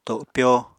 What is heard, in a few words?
"topyo", apatani